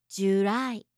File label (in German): "jurai", japanische